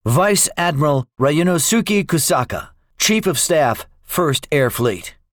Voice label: debriefing, english; second wave; War Thunder